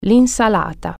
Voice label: salad, italian

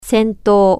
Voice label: battle, japanese

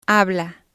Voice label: "habla", spanish

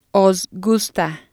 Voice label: "os gusta", spanish

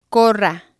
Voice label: "corra", spanish

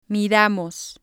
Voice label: "miramos", spanish

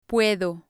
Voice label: "puedo", spanish

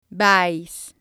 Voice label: "vais", Spanish

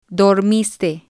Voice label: "dormiste", Spanish

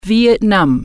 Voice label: "vietnam", German

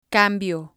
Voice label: "cambio", spanish

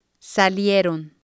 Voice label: "salieron", Spanish

